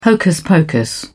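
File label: "hocus-pocus", British English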